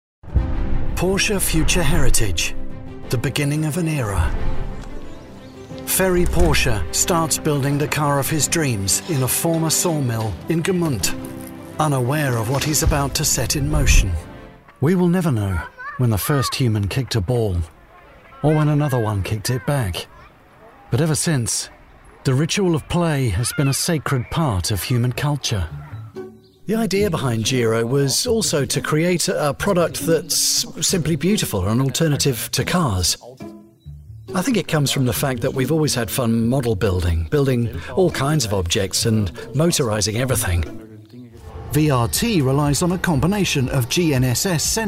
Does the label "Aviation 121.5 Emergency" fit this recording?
No